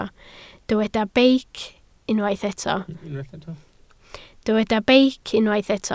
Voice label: "beic", Welsh